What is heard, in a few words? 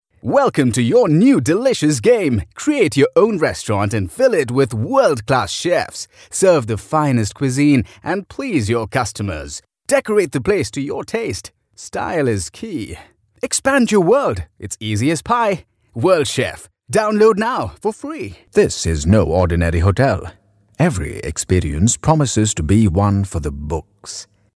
male indian voice over talent upbeat